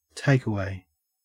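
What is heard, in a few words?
"takeaway", Australian English; pronunciation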